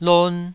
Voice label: "lon2", Taiwanese syllable